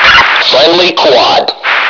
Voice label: fem frquad; lmctf